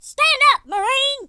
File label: coward, Drill Sergeant, speech